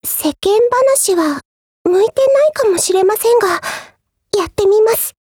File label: DIALOGUE JP; USPCompact